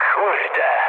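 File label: trick mood low, speech Polish; War Thunder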